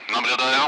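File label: Speech Sound Russian Common Allied Flight Messages; tally; DCS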